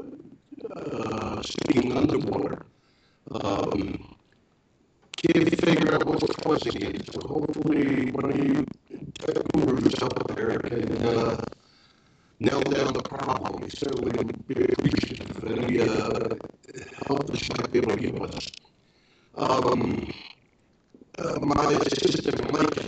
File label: skype recording